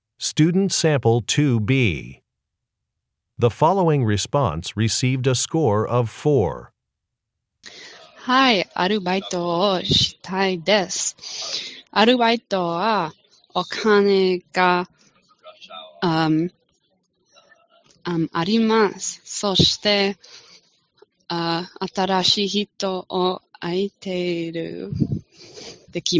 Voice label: Japanese conversation